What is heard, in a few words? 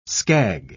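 "skag", British English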